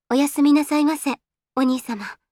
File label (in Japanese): System Voice Windowsの終了; 香純